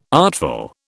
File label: "artful", British